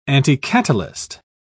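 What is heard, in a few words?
"anticatalyst", English, British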